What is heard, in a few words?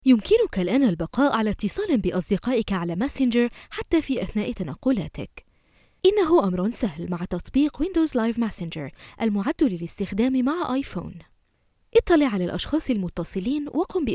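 Arabic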